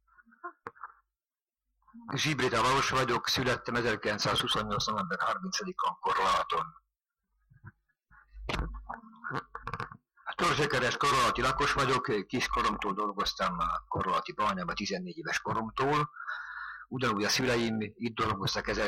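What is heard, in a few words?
dialect collection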